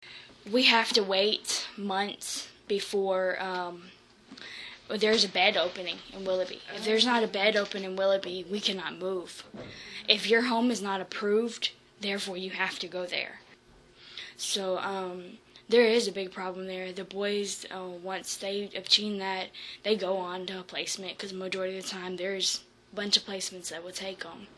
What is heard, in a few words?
girls voices